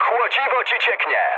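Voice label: damaged water, mood med, Polish speech; War Thunder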